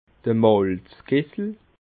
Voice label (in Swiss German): "malzkessel", alsace